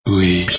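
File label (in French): "oui", French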